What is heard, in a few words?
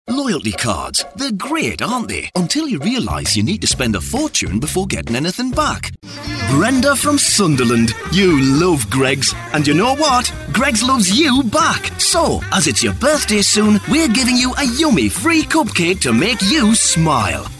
Newcastle